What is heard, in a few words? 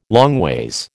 "longways", American